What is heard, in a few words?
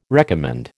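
"recommend", American English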